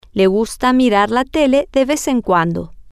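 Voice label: she likes to watch TV every once in a while, Spanish, female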